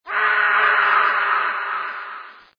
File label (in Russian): muzhskoj golos